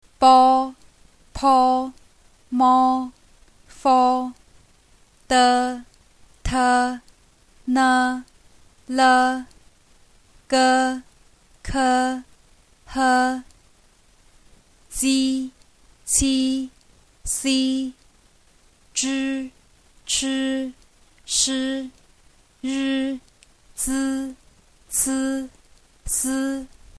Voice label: initials, Mandarin

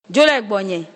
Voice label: go away, ewe

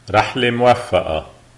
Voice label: bon voyage lebanese

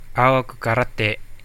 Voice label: no understand, centraldusun

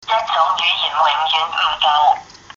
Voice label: one language, cantonese

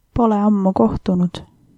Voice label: long time no see, estonian

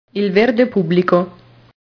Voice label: "il verde pubblico", Italian

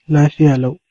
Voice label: good morning, hausa

